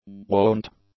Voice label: "wont", english; adjectives